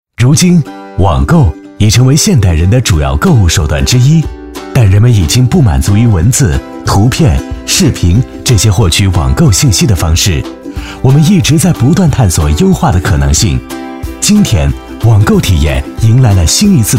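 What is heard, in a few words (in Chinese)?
男S347 国语 男声 专题片-互联网平台专题片-科技年轻活力 大气浑厚磁性 沉稳; 网购buy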